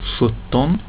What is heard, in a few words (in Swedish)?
"sjutton", Swedish; getal